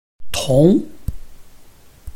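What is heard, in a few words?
"tong2", Mandarin Chinese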